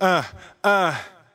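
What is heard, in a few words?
"uh uh", male; ooh ahh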